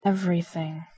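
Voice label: "everything", English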